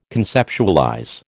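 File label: "conceptualize", American English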